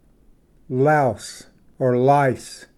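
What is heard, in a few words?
"louse lice", English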